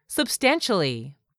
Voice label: "SUBSTANTIALLY", english; 3000 words

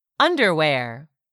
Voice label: "UNDERWEAR", English; 3000 words

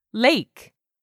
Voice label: "LAKE", english; 3000 words

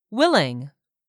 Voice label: "WILLING", English; 3000 words

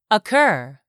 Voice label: "occur", English; 3000 words

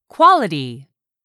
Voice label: "quality", english; 3000 words

